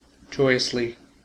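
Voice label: "joyously", American English; pronunciations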